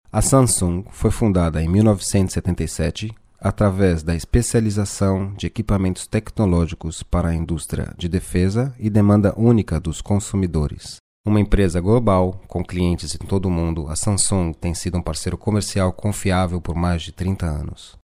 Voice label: Portuguese; קריינות